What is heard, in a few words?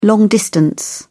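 "long distance", British English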